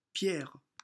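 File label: "Pierre" French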